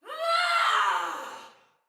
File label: Battle Cry Sinecure Soldier Female; Barks; Dialog